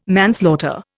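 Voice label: "manslaughter", Indian English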